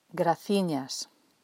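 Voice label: "Graciñas", Galician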